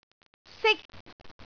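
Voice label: "sik1", Cantonese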